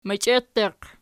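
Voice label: "meichet" locative, Archi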